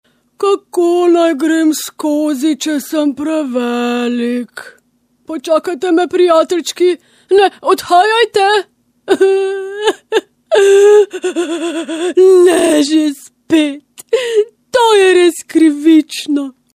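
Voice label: slovenian female character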